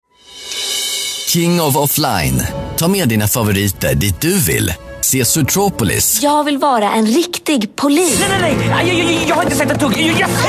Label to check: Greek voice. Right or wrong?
Wrong